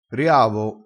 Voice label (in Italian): "riavo", crespinese